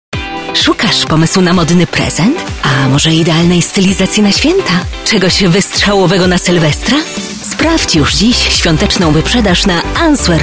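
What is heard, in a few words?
PL F commercial, Polish, female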